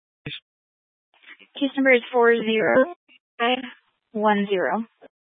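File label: Police Dispatch, scanner audio recording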